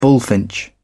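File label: "bullfinch", British English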